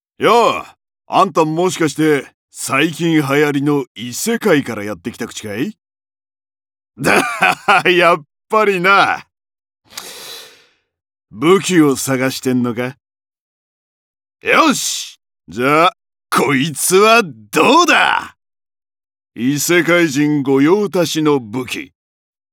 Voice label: セリフ 40代 異世界の武器屋

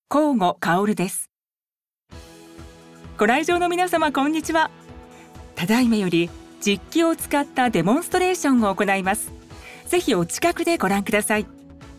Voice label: 展示会製品紹介; 「ロボット」

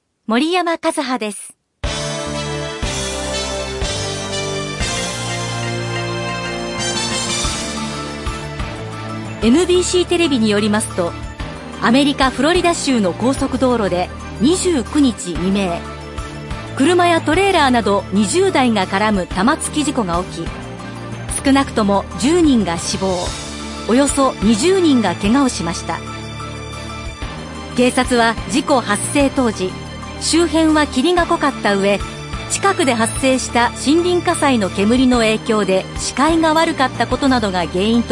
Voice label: ニュース

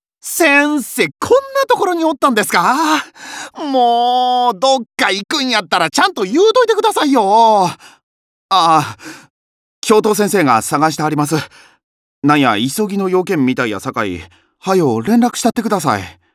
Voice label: セリフ 10-20代 京都弁

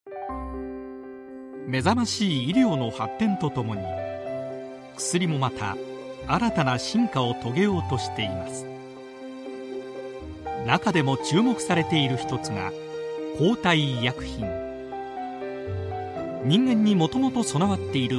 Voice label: 薬品系企業プロモ

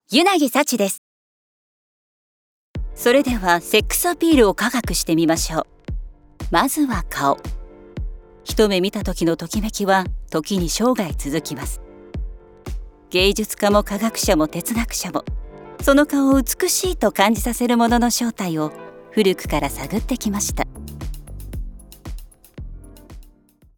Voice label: フラット ストレート 艶; 「セックスアピール」

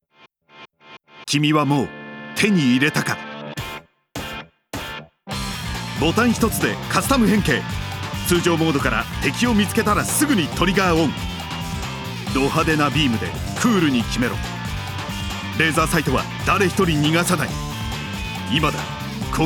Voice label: おもちゃのCM

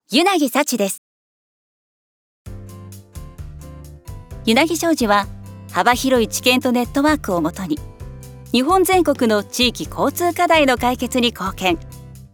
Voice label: VP 明るい やさしい ストレート; 「柚梛木商事」

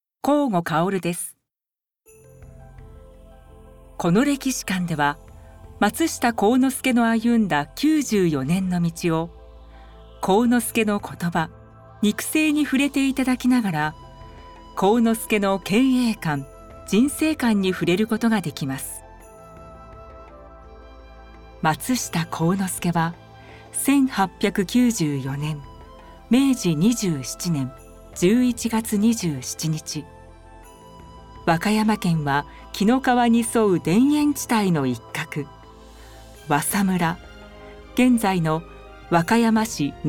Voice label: 歴史館ナレーション; 歴史館ナレーション「松下幸之助」

